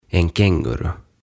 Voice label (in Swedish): "En känguru" "Kenguru"